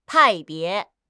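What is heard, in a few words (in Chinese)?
"派别", Chinese; HSK6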